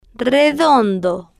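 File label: "redondo", slow, spanish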